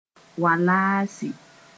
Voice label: "wallaasɩ", Mooré